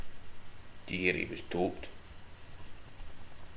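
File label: "topped", slang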